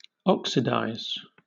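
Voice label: "oxidize", British English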